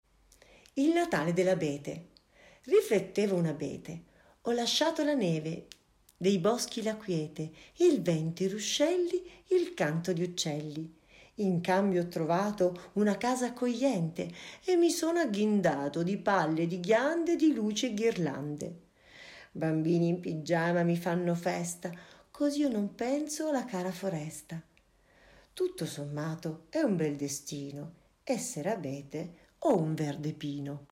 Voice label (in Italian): filastrocca